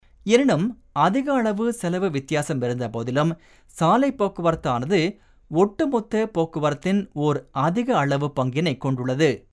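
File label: Tamil male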